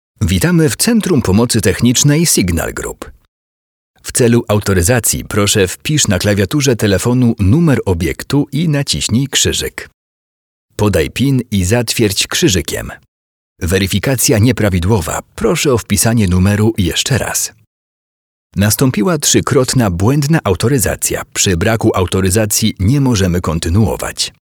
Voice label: polish ivr